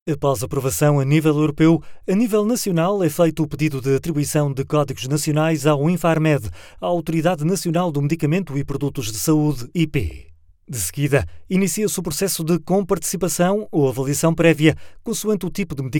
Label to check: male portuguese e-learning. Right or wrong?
Right